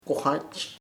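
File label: mountain montaNa, Chamacoco word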